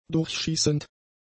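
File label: "durchschießend", german; adjectives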